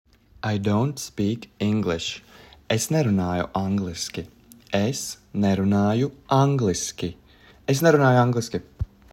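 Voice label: I dont speak English, Latvian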